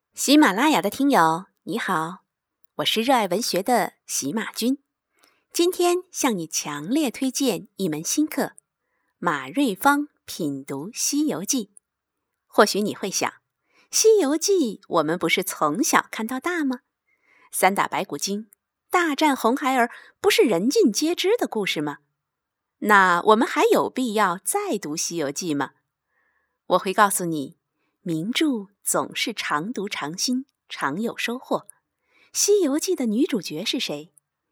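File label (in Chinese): 导读, 女声 有声读物 大气稳重知性深沉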